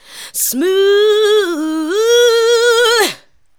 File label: ADLIBS 90; Ueberschall; Jam Box